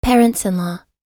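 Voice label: "pea", us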